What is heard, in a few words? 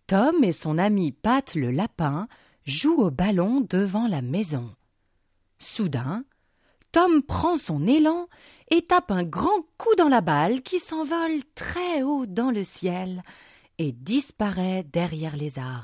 children book